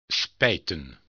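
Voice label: "spijten", Dutch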